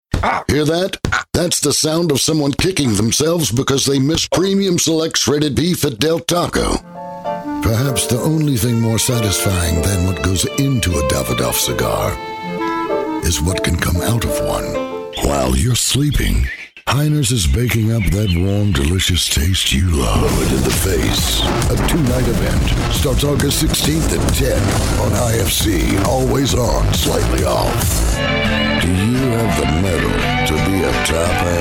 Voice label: Voiceovers